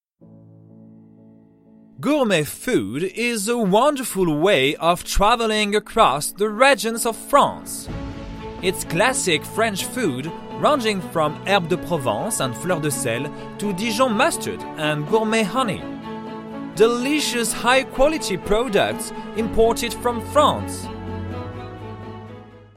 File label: english brit light medium enthousiaste; voix off masculine; voix off masculine naturelle, posée, souriante